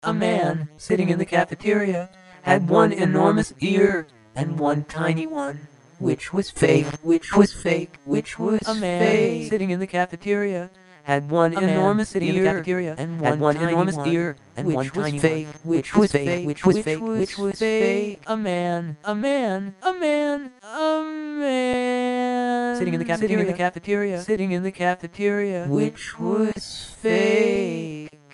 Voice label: Speech Songs